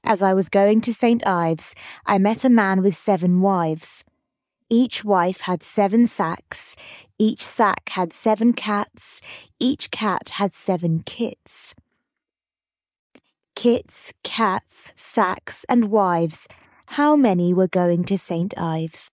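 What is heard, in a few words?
female British; As I Was Going to St. Ives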